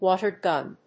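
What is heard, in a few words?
"water gun", English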